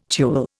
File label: "tulle", Indian